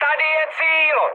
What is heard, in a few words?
near waypoint, speech cze, mood high; War Thunder